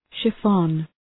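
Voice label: "chiffon", English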